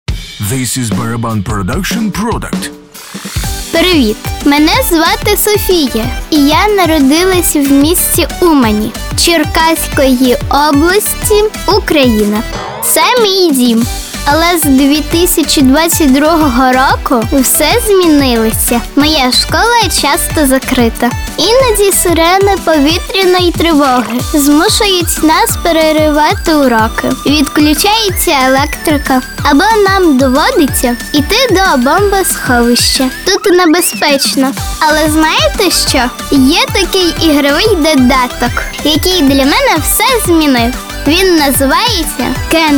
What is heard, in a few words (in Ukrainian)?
Ukrainian; Cant Wait to Learn